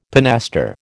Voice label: "pinaster", American